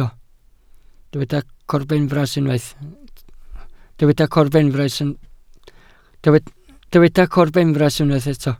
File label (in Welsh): "corbenfras" Welsh